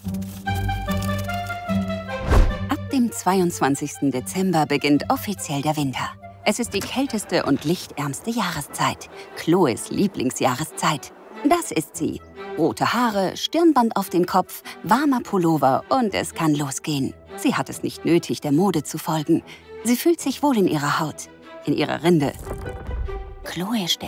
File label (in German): Kommentar Doku lebendig; waldtagebuch; winter